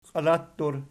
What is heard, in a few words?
"qialattur", Archi